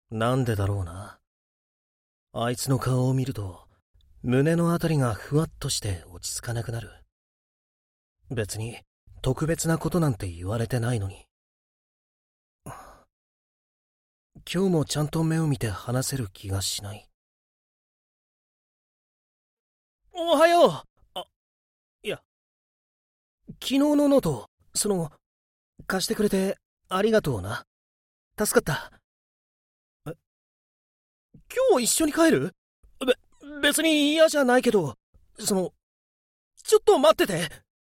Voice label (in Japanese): 朴訥高校生